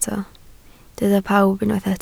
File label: "pawb", Welsh